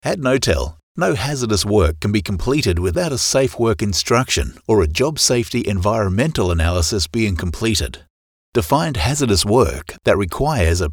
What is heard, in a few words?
Elearning